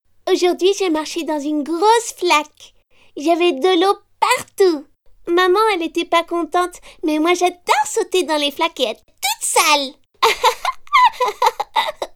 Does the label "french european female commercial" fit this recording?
Yes